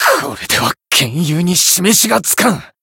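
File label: Voice win barely, Japanese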